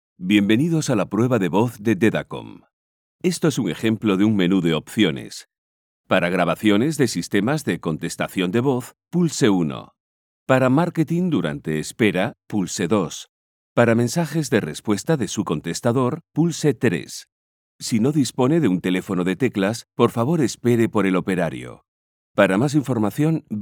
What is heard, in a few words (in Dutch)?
Voice over Spaans Europees man